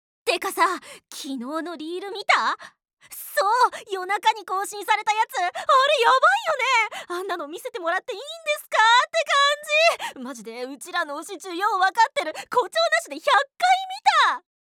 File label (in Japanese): akaruizyoshidaisei; 明るい女子大生